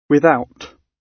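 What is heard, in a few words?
"without", British English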